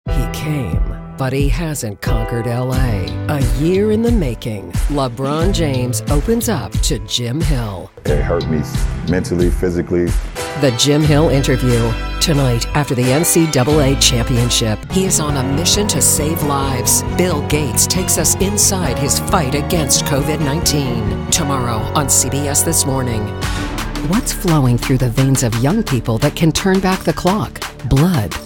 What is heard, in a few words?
tv affiliate women